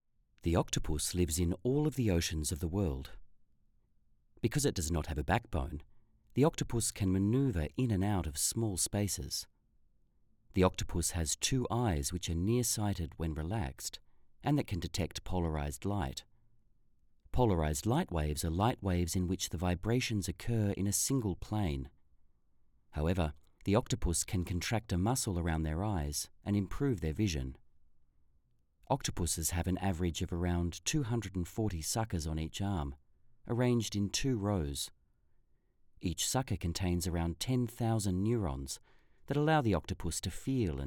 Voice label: Explainer

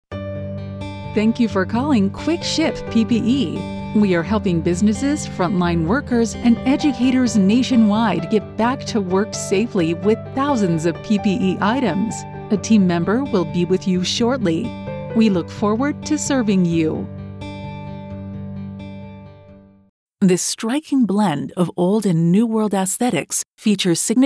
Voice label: PHONE

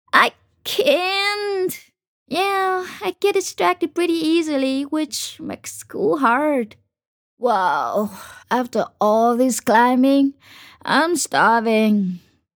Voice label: Animated character Tiger

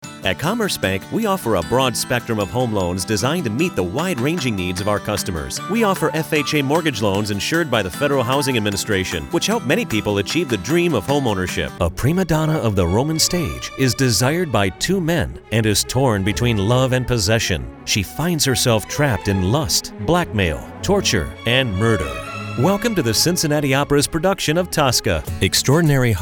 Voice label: Soft Sell Commercials

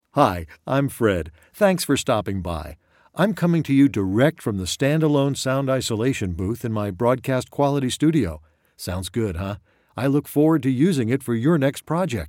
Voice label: studio sample

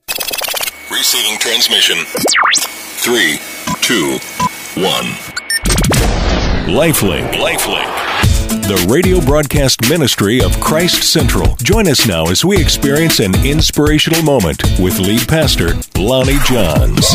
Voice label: Tall Voice Podcast

